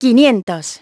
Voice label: "five hundreds", masculine; iOS 4